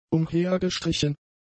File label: "umhergestrichen", German; adjectives